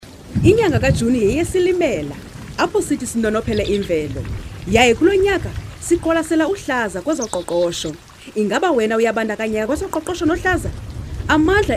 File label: xhosa; IsiXhosa; National Environment Month 2012